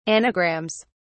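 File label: "anagrams", British English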